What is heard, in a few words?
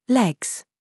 DRESS TRAP, minimal pairs